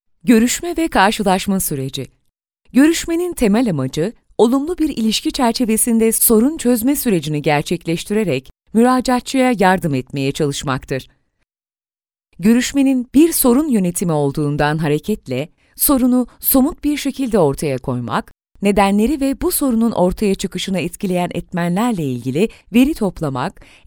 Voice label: egitim okumasi

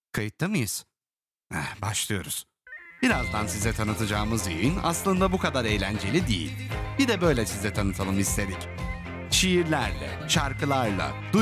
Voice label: tanitim muzikli

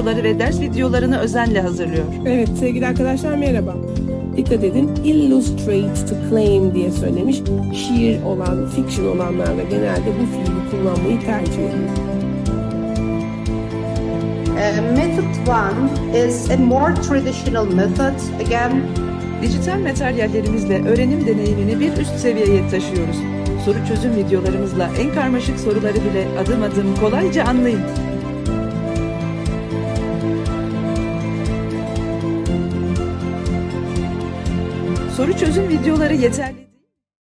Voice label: eğitim

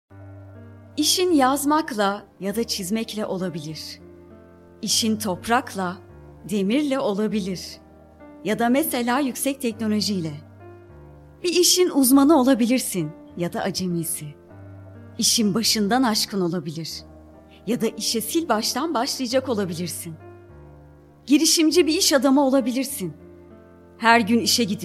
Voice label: reklam; isbankasi

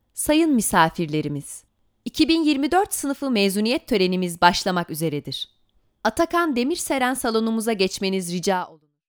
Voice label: turkce

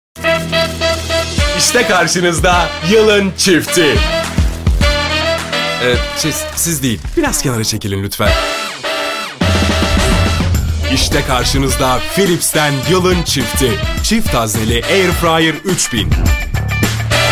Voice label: airfryer reklam filmimiz; yeni philips

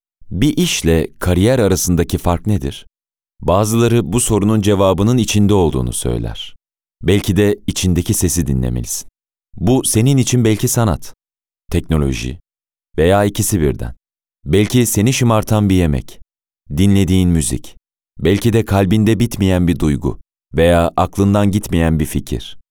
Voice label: kendini keşfet seslendirme

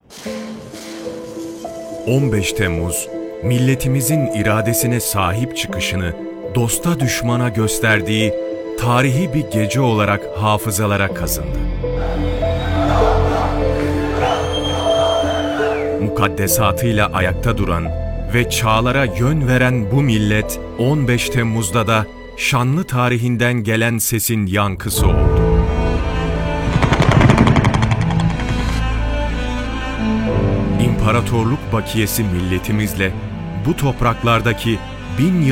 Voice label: seslendirme; 15 temmuz

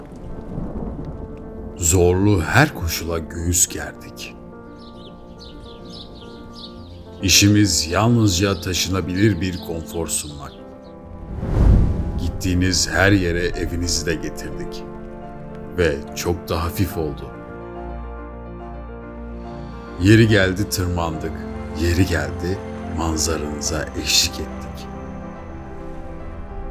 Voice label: karavan reklam seslendirme; guney

